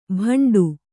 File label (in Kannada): "ಭಂಡು", Kannada